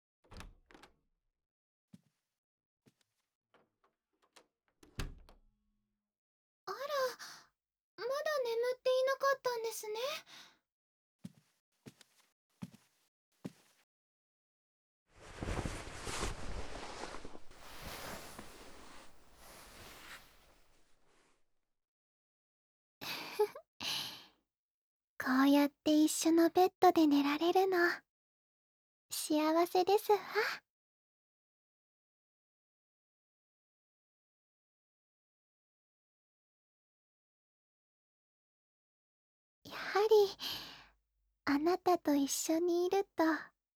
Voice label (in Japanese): ラブラブささやきボイス; いっしょぐらし ～お嬢様彼女編～